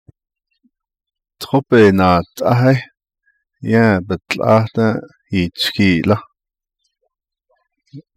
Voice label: The water canteen has gotten rusty on the bottom, Navajo